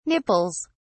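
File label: "nipples", British English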